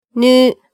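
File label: "nu", Japanese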